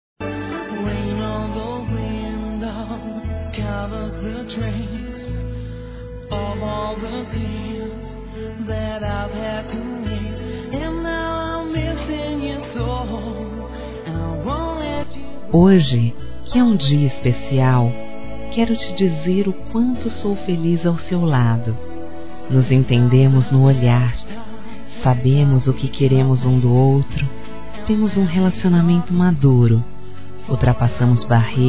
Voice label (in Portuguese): CASADOS F; Dia Dos namorados; fem; Telemensagens 2017